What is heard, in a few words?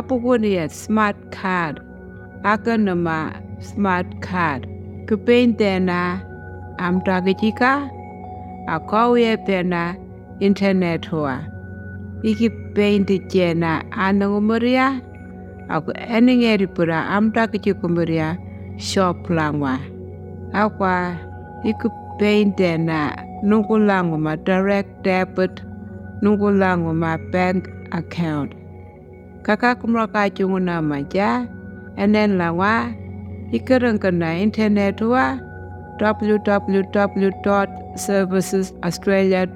enhanced income management anindilyakwa